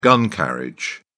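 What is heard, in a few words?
"gun carriage", British English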